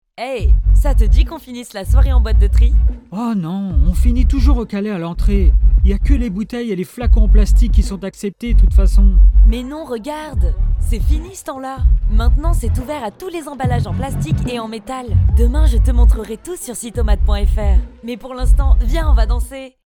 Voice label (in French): FR Pub TV en duo; Sittomat